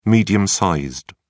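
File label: "medium-sized", English, British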